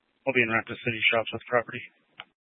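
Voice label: Police 1 Dispatch, Scanner audio recording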